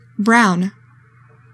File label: "brown", english; 3000 words